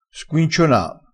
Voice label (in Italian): "squinciona", dialettale, crespinese